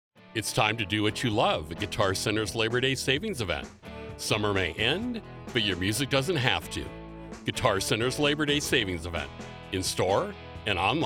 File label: Commercial